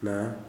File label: "i", Kuman